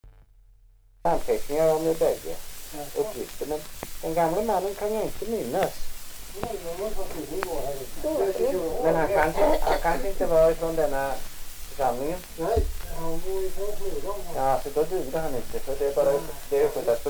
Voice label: dialekter; Folkminnen; Svenska sagor

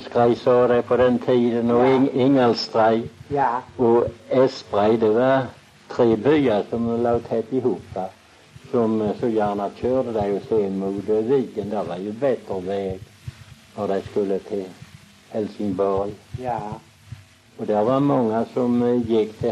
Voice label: Väsby SK, dialekter; Folkminnen; Svenska sagor

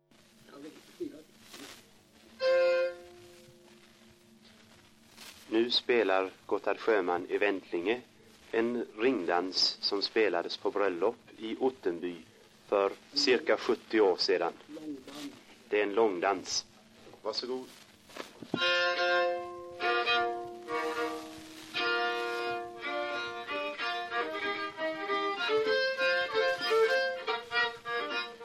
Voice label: dialekter; Folkminnen; Svenska sagor

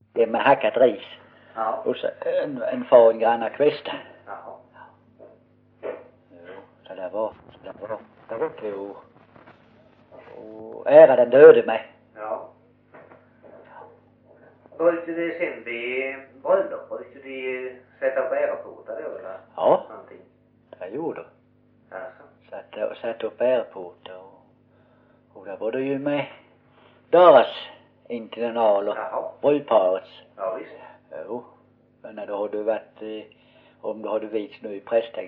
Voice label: Osby, dialekter; Folkminnen; Svenska sagor

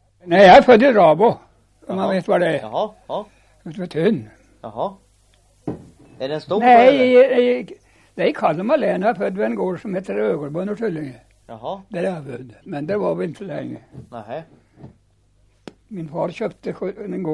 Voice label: Oppeby, dialekter, sagor; Folkminnen; Svenska sagor

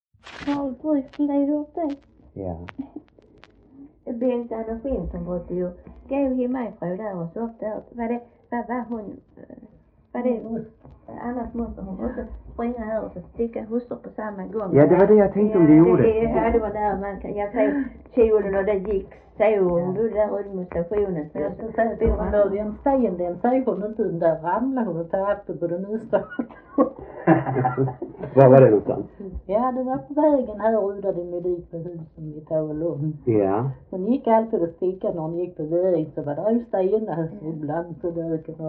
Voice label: Äspö SK, dialekter; Folkminnen; Svenska sagor

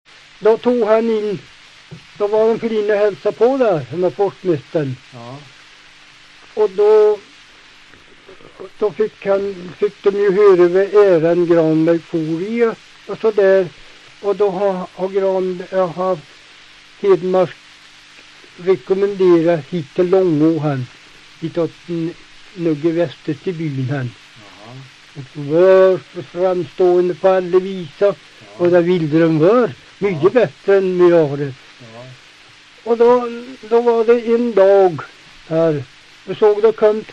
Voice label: Hede, dialekter; Folkminnen

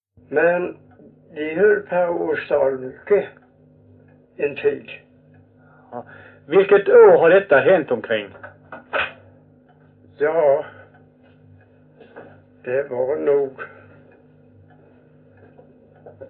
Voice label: Visseltofta SK, dialekter

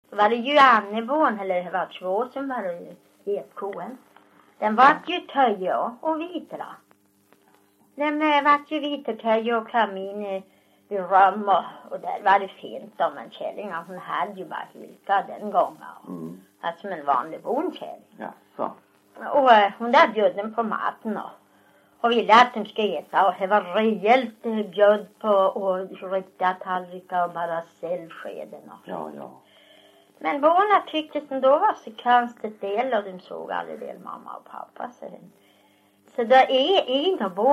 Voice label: Umea Overboda, dialekter

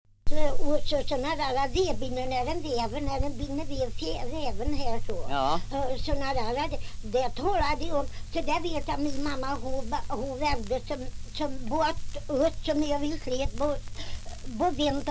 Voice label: Svenska sagor, dialekter; Folkminnen

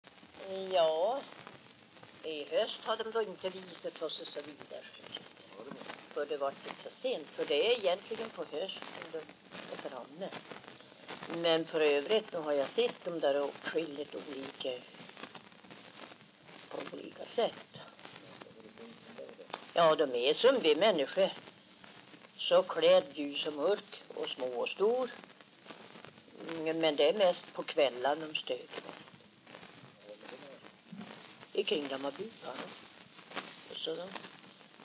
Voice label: dialekter; Folkminnen; Svenska sagor

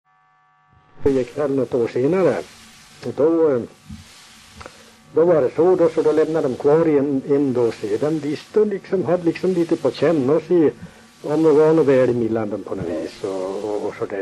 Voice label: dialekter; Folkminnen; Svenska sagor

